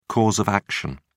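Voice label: "cause of action", British English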